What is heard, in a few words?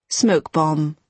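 "smoke bomb", British English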